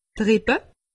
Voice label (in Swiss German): "rippe", alsace; medecine